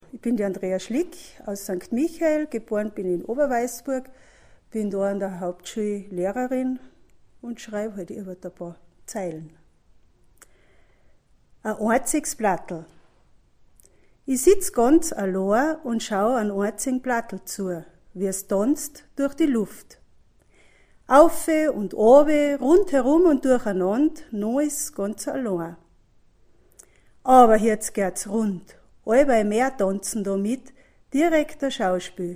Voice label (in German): mundart